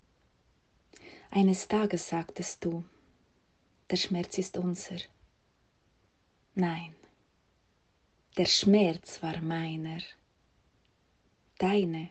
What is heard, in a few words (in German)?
Deutsch